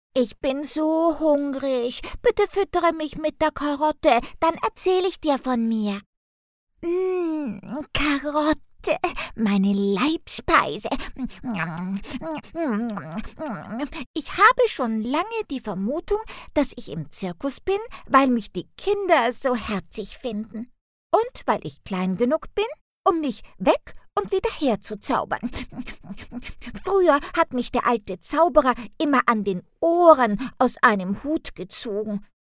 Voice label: character cartoon rabbit